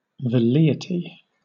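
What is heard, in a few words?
"velleity", British English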